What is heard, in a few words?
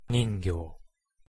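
pronunciation "ningyo", Japanese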